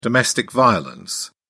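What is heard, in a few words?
"domestic violence", British English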